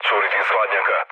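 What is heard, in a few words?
Serbian damaged water mood low, speech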